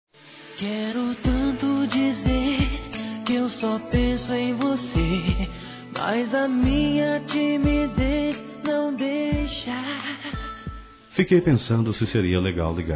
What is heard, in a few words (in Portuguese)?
masc; Conquistas Cantadas paqueras; ADOREI FICAR C-VOCÊ; Telemensagens 2017